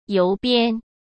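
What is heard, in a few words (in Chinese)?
"邮编", Mandarin Chinese; hsk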